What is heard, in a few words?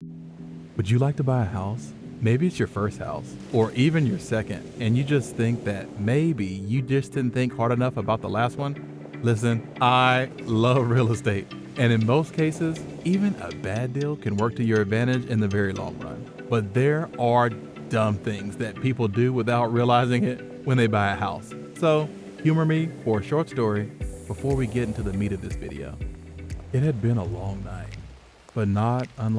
narration